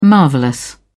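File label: "marvellous", British English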